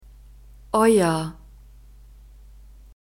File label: "euer", German; pronouns personal genitive